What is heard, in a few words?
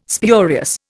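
"spurious", Indian English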